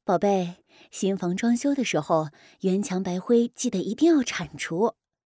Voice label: 妈妈角色

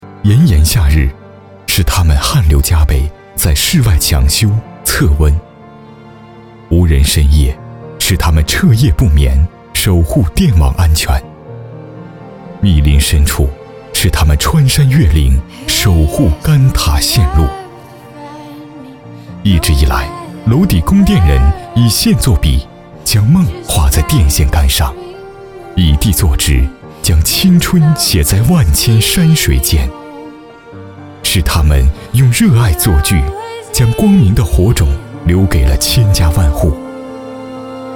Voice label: 男 走心 劳模精神

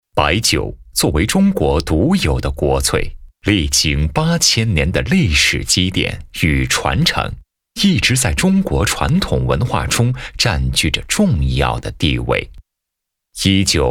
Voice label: 男, 专题; 贵州酒厂

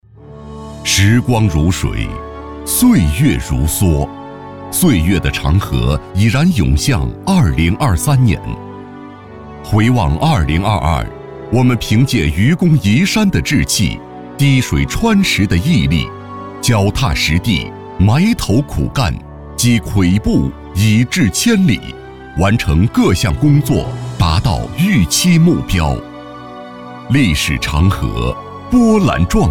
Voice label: 男 党政宣传 电网; 临川电网

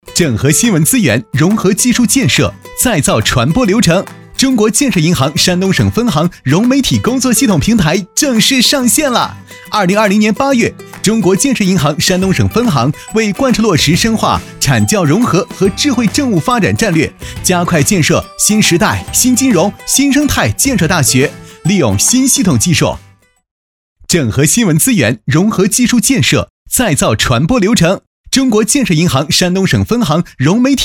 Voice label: 男; 飞碟说; 融媒体平台

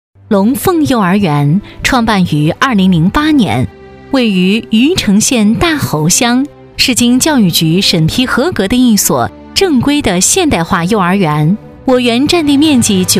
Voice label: 女 专题 幼儿园

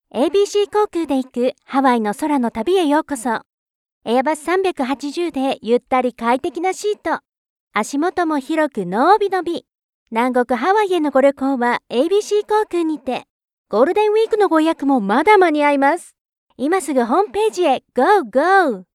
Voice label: 日语女 商业广告 Commercial

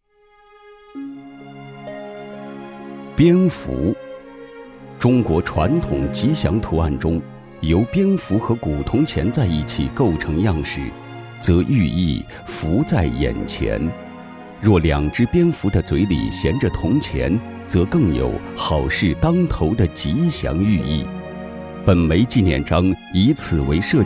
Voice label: 男 历史申遗类 文字解说

